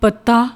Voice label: "patta", Punjabi